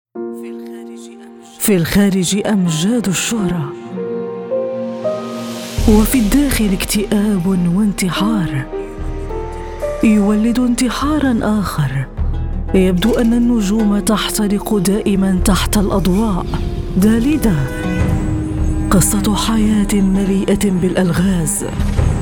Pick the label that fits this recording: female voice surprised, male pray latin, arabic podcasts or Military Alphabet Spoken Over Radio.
arabic podcasts